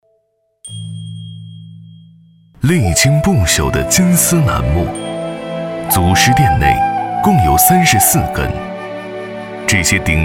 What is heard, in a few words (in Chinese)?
男 纪录片; 人文历史; 非物质文化木雕